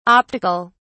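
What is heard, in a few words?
"optic(al)", British English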